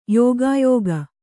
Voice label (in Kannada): "ಯುಗಯೋಗ", Kannada